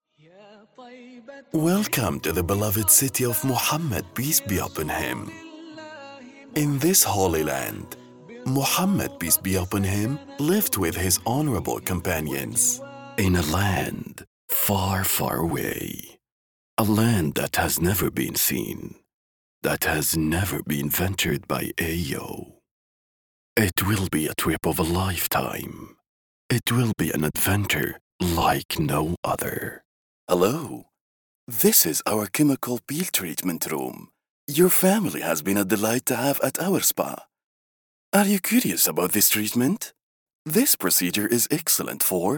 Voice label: English samples

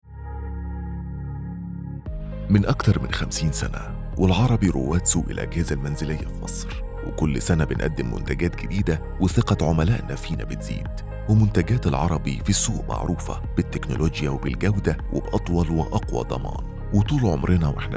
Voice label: Arabic Egyptian Dialect; Al3ARABY GROUB

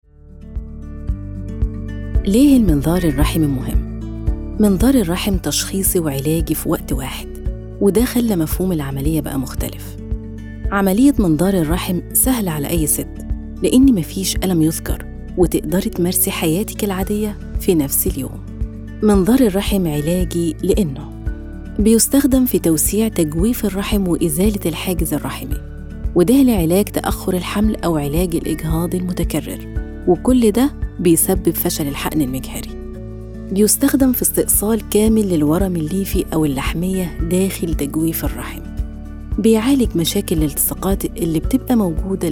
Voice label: Egyptian Arabic advertising; المدينة اندوكير

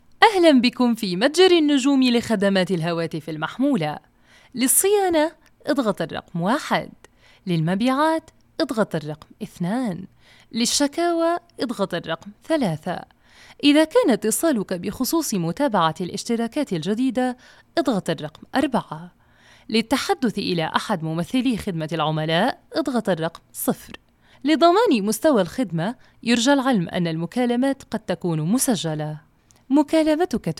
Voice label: IVR; متجر النجوم

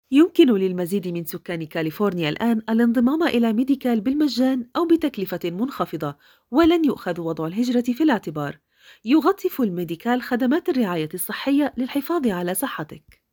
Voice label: Medi cal لغه عربيه فصحى

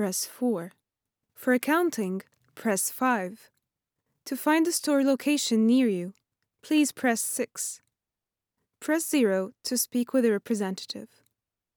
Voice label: IVR message Tech company, صوت أنثوي حيوي

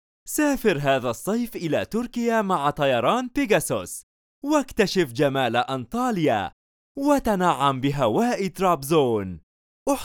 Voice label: commercial, Arabic; Pegasus

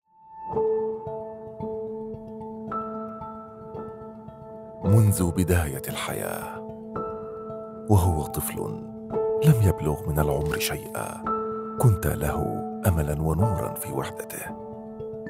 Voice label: TVC Arabic MSA VO; Islamya

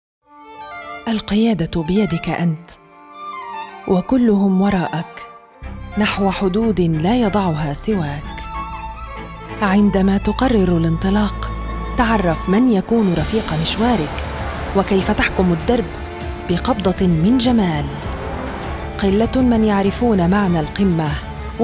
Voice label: MSA Car Commercial; Lexus

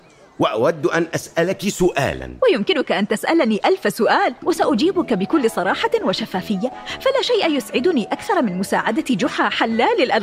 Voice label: Character Comedy

